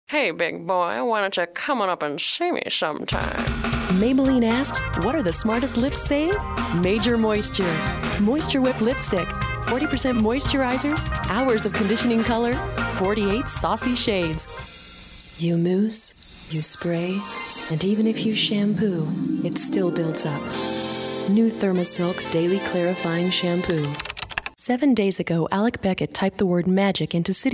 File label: Commercial Female